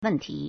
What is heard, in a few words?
"wen4ti2", Chinese